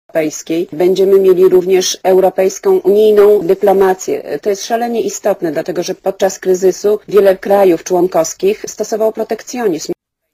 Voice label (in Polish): z narracja